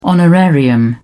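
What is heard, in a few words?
"honorarium", British English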